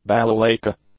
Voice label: "balalaika", English